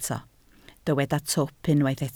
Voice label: "twp", Welsh

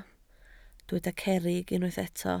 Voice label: "cerrig", Welsh

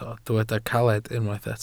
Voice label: "caled", Welsh

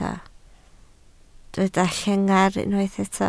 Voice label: "llengar", Welsh

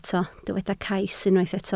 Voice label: "cais", Welsh

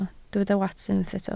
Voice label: "wats", Welsh

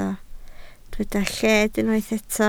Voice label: "lled", Welsh

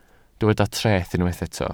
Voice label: "treth", Welsh

